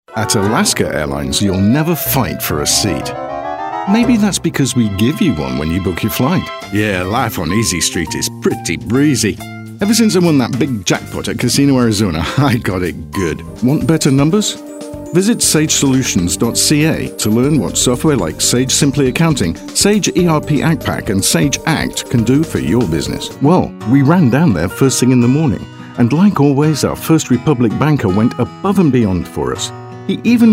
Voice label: Commercial